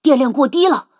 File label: Speech Alarms Sub Battery Low; HuaQing; World Of Warships; NatuEvent